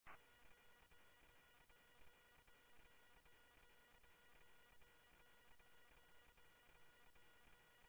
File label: scanner audio, Sheriff